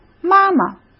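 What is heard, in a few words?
"妈妈", Mandarin Chinese; chinese-flashcards; hsk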